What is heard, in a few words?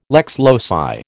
"lex loci", American